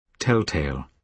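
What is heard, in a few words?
"telltale", British English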